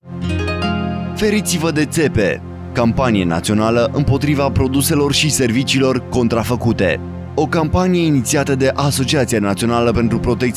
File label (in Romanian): spot radio